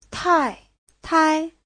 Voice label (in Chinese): "tai4tai5", 太太; (danh từ) bà